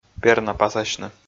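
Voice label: "пернапасасьны", Komi, male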